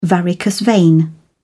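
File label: "varicose vein", British English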